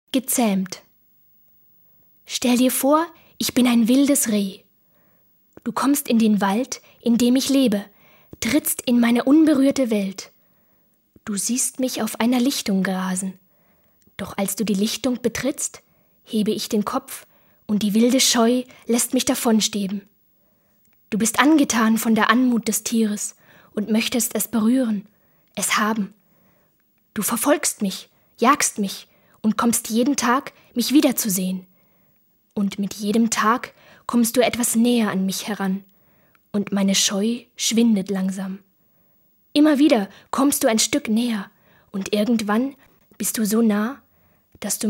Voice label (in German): Erzaehlung; sprecher